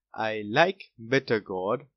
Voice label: bitter gourd like, boy, english; grade1; what do you like